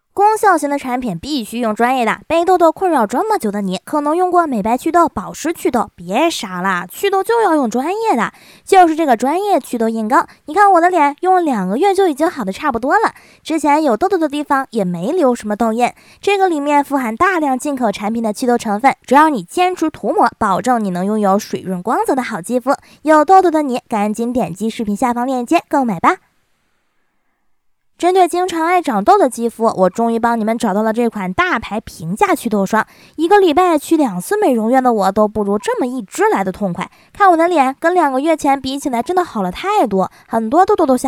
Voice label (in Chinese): 祛痘普通话女卖货; 西施